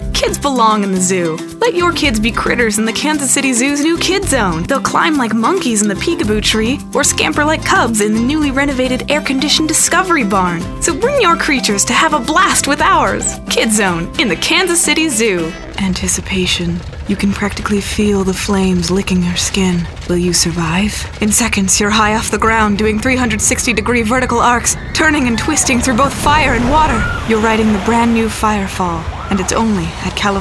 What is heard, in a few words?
Commercial VO